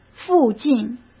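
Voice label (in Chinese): "附近", Mandarin Chinese; hsk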